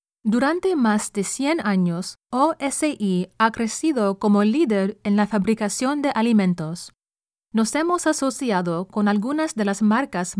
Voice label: Spanish Commercial